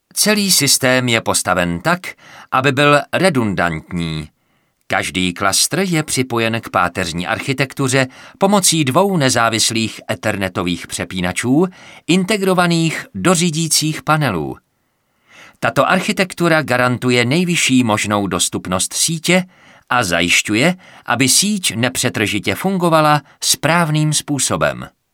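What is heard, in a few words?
male Czech documentary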